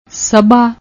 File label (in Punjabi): "sbha", Punjabi; ਸਭਾ